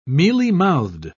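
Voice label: "mealymouthed", British English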